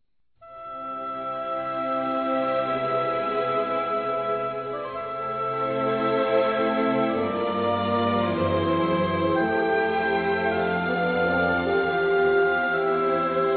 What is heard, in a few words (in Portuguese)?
Natal Para Pai Feminino; Telemensagens; Natal e Ano novo